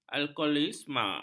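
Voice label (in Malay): "alkoholisme", Malay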